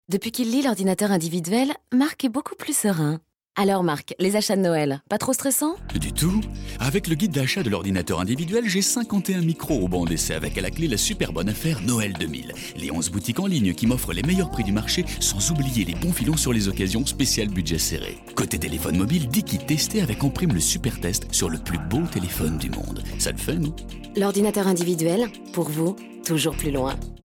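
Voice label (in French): PUB Ordinateur Individuel, voix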